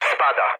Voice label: aircraft destroyed mood low, speech pol